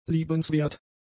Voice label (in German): "liebenswert", German; adjectives